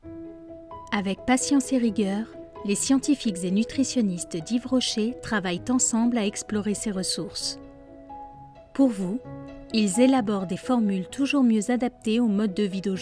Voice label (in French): Institutionnel; Yves-Rocher